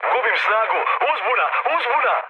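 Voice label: Serbian damaged engine mood med, speech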